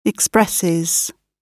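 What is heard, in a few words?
"expresses", British English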